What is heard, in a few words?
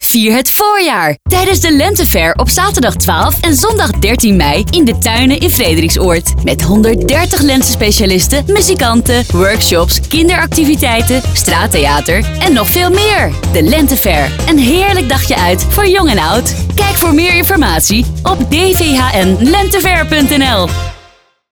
Commercial, Radio; NDC DVHN Lente Fair 2018